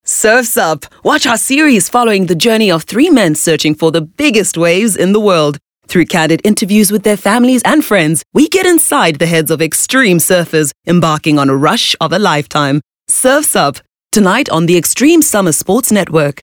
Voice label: English Skill Promo